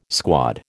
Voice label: "squad", American